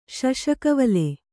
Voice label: "ಶಶಕವಲೆ", Kannada